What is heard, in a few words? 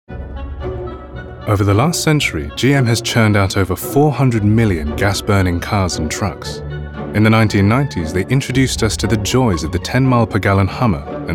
Narrative